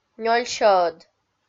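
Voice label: "нёльсёӧд" Komi-Zyrian, female; kpv audio dict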